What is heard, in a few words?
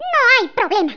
flawless, Spanish, Speech